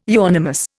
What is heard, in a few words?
"euonymus", Indian